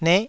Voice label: "neh9", taiwanese